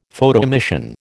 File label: "Photoemission", American